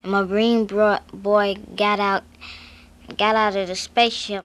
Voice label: Conversation with 10 year old black female Washington DC, american english dialect recordings